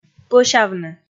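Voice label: "пӧсявны", Komi, female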